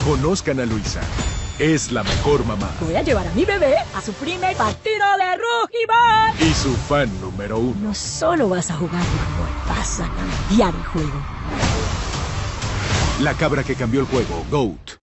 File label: TV spots; GOAT